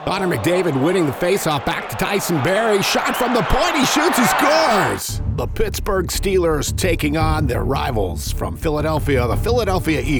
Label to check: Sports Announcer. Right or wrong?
Right